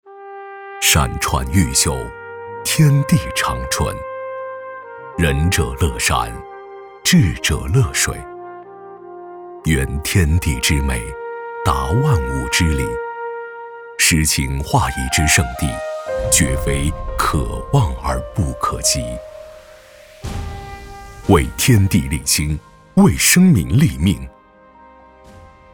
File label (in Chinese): 男, 专题; 国信南山